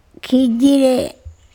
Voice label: "kHijire", Andamanese; roam

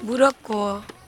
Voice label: "burokowo", andamanese

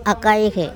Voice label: "akaikHe", Andamanese; open

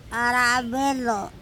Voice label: "arabelo", andamanese; younger one

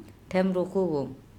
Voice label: "ThEmbokhobom", Andamanese; i am getting ready

